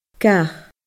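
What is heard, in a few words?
"gath", Scottish Gaelic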